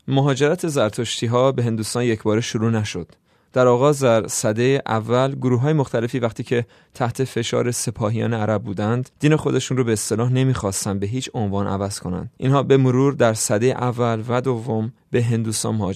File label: farsi